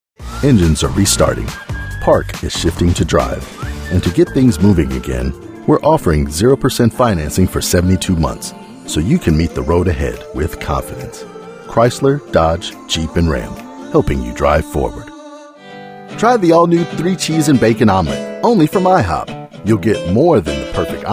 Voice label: Commercial